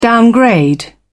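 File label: "downgrade", British English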